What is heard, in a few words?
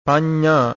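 "panna", Pali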